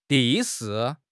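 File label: "地势", Mandarin Chinese; HSK; HSK6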